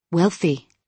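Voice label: "wealthy", British English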